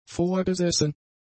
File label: "vorgesessen", German; adjectives